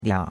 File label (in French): "lia3", chinois; Cours-de-Chinois